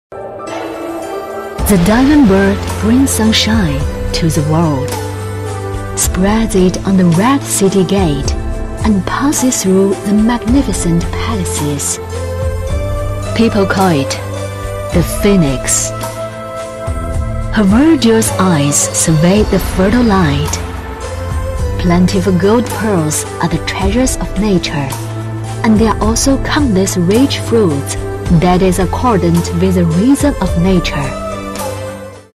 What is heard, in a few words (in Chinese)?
英文广告大气珠宝